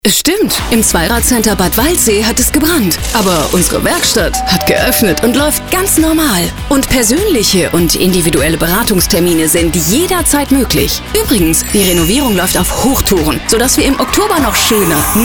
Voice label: radiospot